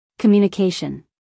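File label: "communication", American English, female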